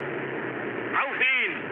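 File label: German attack position mood med, speech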